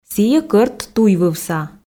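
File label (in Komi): сійӧ кӧрт туйвывса, Komi, female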